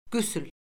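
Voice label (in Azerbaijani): "qüsl", Azerbaijani; Orfoqrafiya Lüğəti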